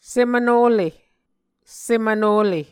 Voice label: "semvnole", Muscogee

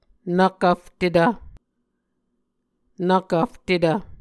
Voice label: "nvkvftetv", Muscogee

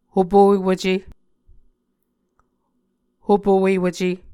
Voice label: "hopuewuce", Muscogee

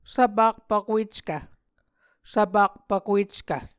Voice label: "svpakpvkueckv", Muscogee

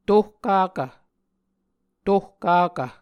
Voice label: "tohkakv", muscogee

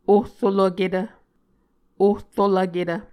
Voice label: "ohrolvketv", Muscogee

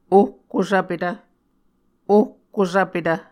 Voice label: "ohkosvpetv", Muscogee

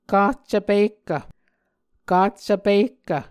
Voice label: "kaccv pihkv", Muscogee; Mvskoke Words with Repeated Consonants; Onvkv Ohhvyayices; A Dictionary of Creek/Muskogee; SIL International

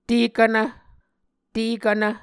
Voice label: "tekvnv", Muscogee

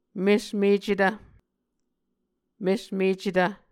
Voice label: "mesmecetv", Muscogee

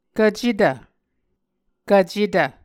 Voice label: "kvcetv", Muscogee

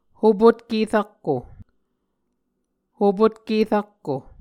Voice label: "hopotke rakko", Muscogee

